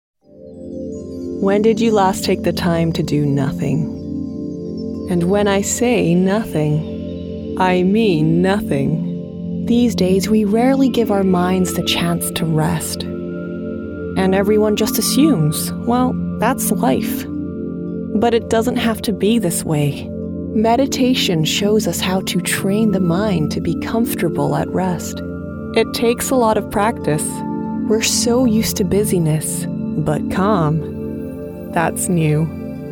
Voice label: Ad; Headspace